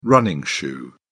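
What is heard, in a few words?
"running shoe", British English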